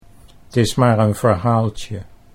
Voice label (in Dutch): "t is maar een verhaaltje", Dutch